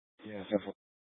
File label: Mesa PD Dispatch; Fiesta